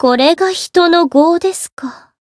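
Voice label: Voice growth, Japanese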